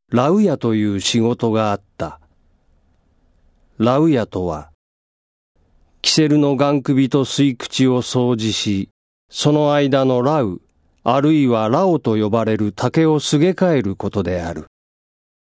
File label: male voice